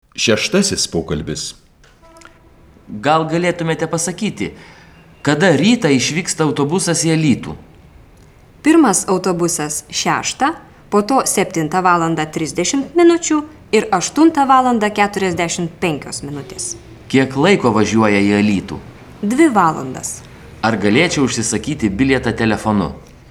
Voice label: Dialog